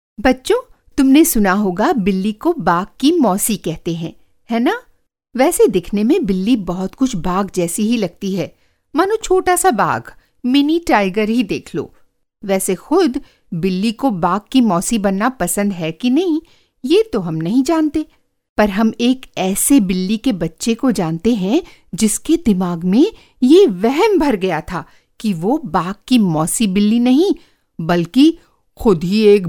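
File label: Hindi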